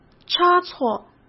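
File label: "差错", Mandarin Chinese; hsk; chinese-flashcards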